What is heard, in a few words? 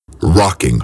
"Rocking", EDM Future Vocals; Big EDM; Future House Tools